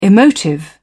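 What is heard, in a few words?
"emotive", British English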